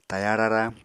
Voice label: "taya rara", apatani

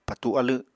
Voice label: "patu alw", Apatani

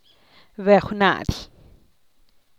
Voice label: "bejw na’dy", Teotitlán del Valle Zapotec; snail

